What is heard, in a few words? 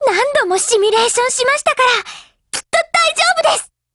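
black action, Japanese; GF Alarm; Carcano1891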